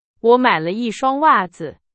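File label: Chinese, example sentence; hsk4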